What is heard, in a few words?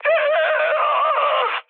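Korean damaged pilot mood high; War Thunder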